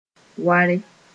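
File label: "ware", Mooré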